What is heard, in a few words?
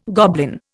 "goblin", Indian